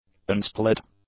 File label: "unsplit", english; adjectives